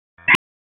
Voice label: FIRE OPS, p25; wake